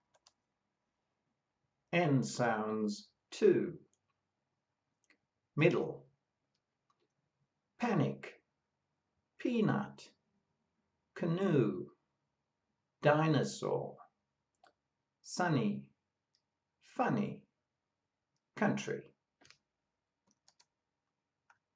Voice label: N sounds, pronunciation of australian english

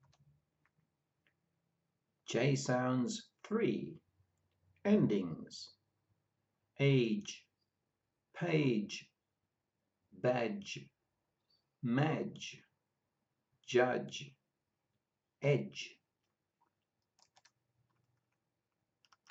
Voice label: J sounds, pronunciation of australian english

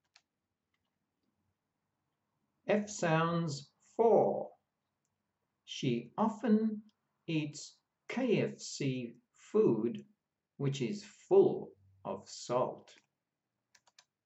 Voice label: F sounds, pronunciation of australian english

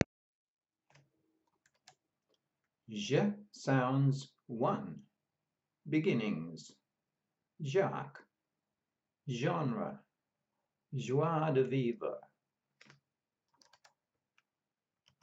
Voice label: Zh sounds, pronunciation of australian english

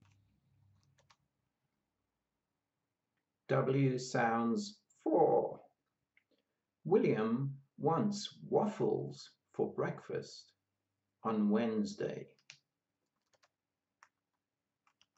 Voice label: W sounds, pronunciation of australian english

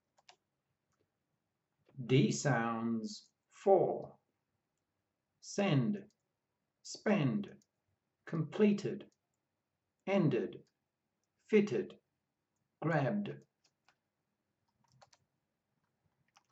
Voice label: D sounds, pronunciation of australian english

